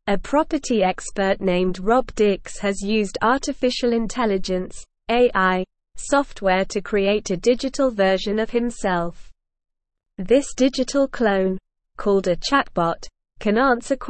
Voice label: English Newsroom Upper Intermediate SLOW Reading

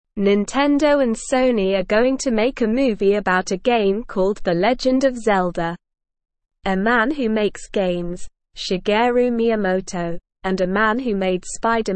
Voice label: Beginner SLOW Reading, English; English Newsroom; Nintendo and Sony Making The Legend of Zelda Movie

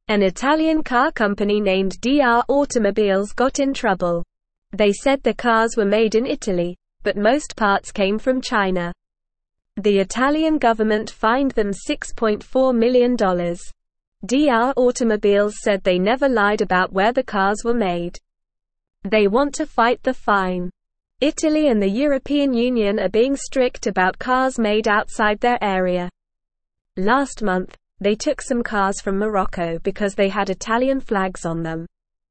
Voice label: English news reading, beginner, normal; English Newsroom; Italian Car Company Fined for Misleading Customers

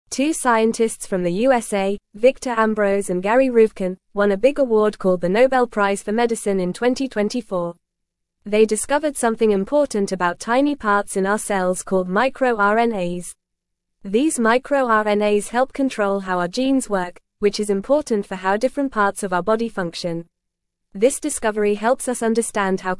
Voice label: English Lower Intermediate FAST Reading; Scientists Win Nobel Prize for Discovering MicroRNA

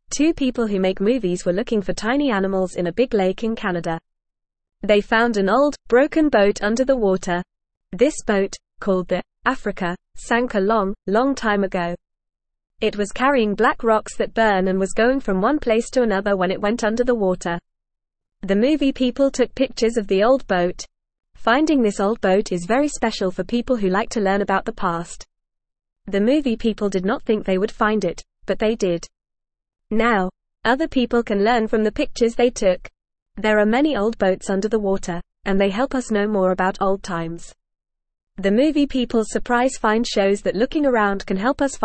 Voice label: English Newsroom Beginner FAST Reading; Surprise Find Old Boat Underwater Helps Us Learn

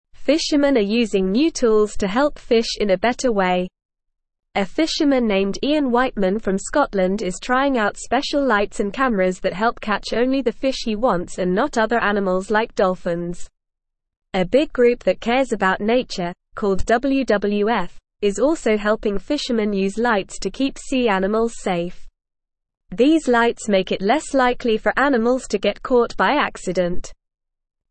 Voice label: English Lower Intermediate NORMAL Reading; Fishing with New Tools Helps Protect Sea Animals; English Newsroom

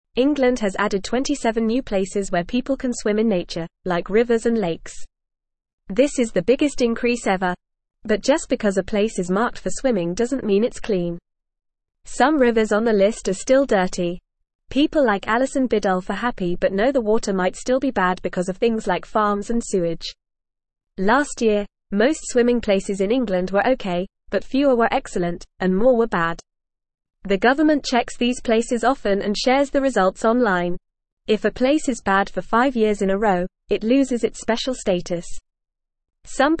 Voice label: English FAST Reading; 27 New Places to Swim in Englands Nature

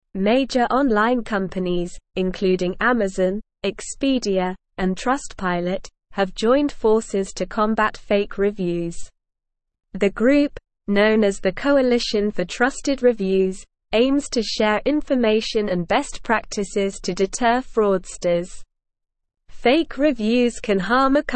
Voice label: English Newsroom Advanced SLOW Reading; Coalition for Trusted Reviews Combating Fake Online Reviews

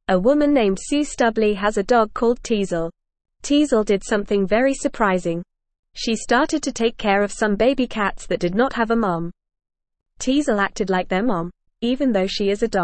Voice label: Lower Intermediate FAST Reading, English; English Newsroom; Teasel the Dog Takes Care of Baby Cats

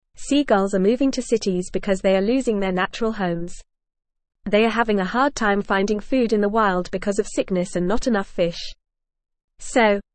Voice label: English FAST Reading; Seagulls are moving to cities and need our help

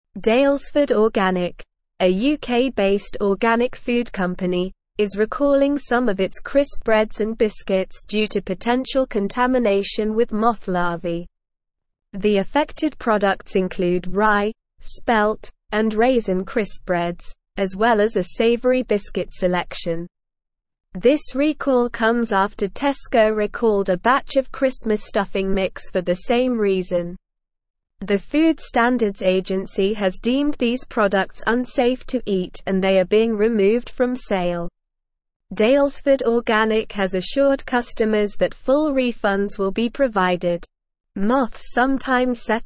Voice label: Advanced SLOW Reading, English; English Newsroom

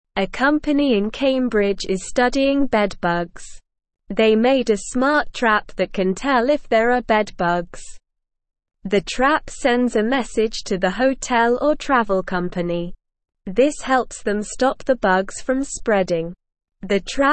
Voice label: English Newsroom Beginner SLOW Reading; Smart Trap Stops Bed Bugs from Spreading

